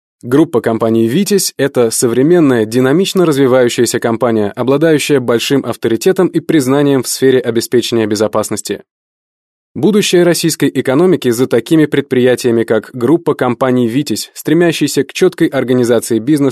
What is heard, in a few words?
russian male